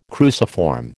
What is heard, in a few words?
"cruciform", American